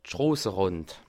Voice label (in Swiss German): "strosserand", alsace